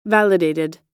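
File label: "validated", American English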